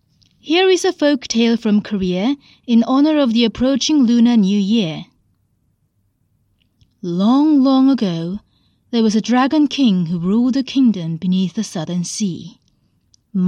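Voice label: Korean, Children Book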